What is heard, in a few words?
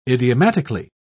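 "idiomatically", English, British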